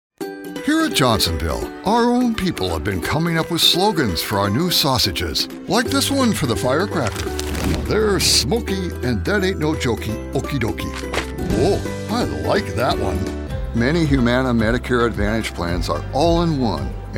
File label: Commercial